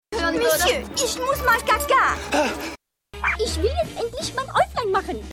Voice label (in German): Pudeldame Trailer Pudeldame, Stimmproben; Was Frauen wollen